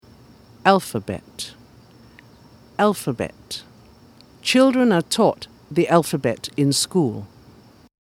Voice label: alphabet, pronunciation